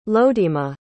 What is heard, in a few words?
"lodema", pronounce